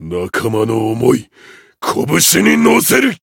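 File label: Voice limit break Japanese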